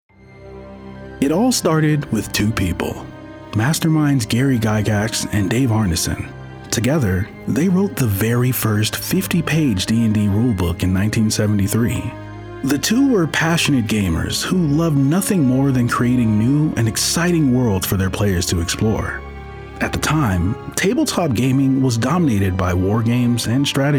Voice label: Telephony, African- American Male Professional Voiceover; Jet Blue